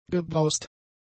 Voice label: "gebraust", German; adjectives

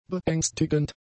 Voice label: "beängstigend", German; adjectives

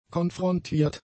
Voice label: "konfrontiert", German; adjectives

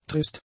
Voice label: "trist", German; adjectives

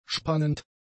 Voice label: "spannend", german; adjectives

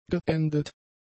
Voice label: "geendet", German; adjectives

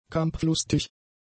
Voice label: "kampflustig", German; adjectives

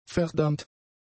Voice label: "verdammt", German; adjectives

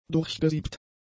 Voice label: "durchgesiebt", German; adjectives

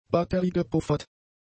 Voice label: "batteriegepuffert", German; adjectives

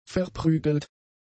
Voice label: "verprügelt", german; adjectives

